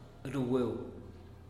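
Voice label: "rugueu", Teotitlán del Valle Zapotec; del rio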